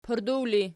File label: "pardaw", Archi